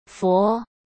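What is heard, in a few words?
"佛", Mandarin Chinese; chinese-flashcards; hsk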